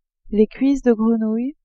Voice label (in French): "cuisses de grenouille", french